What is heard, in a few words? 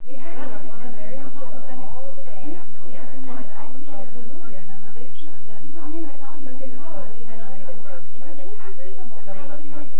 female synthconv 5cm mix; SASSEC07